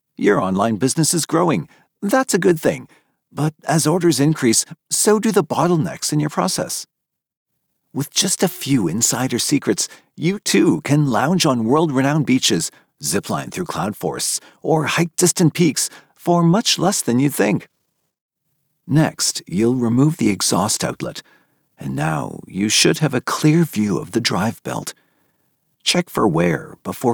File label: Narration, Toronto, resonant, neutral, conversational Male